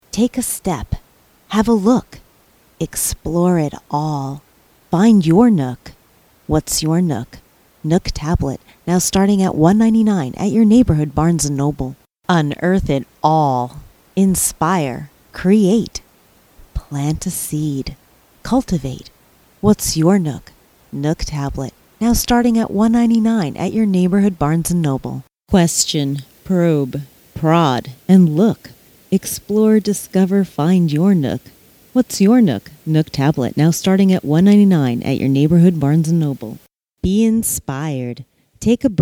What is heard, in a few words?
commercial spec spots; Barnes & Noble